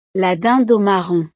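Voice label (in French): "la dinde aux marrons", French; navidad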